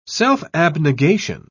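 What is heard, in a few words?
"self-abnegation", British English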